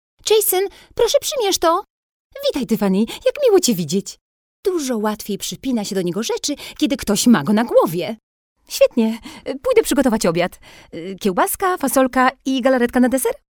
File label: F DUBBING